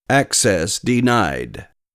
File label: Announcer Access Denied male